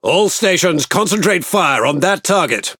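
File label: Speech Priority Target, British English; World Of Warships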